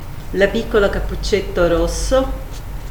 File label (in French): italien La piccola capuccetto rosso